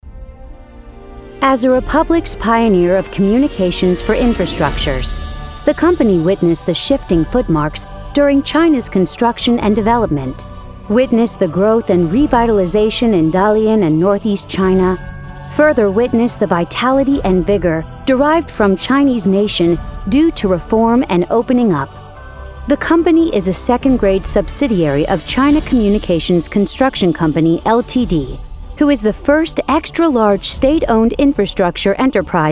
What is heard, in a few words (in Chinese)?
外籍女 企业专题